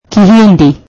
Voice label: Swahili Hindi